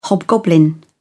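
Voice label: "hobgoblin", British English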